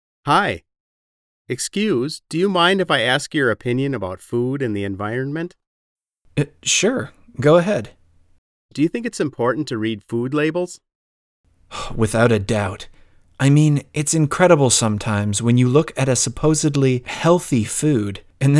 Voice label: conversation; Real Heroes 5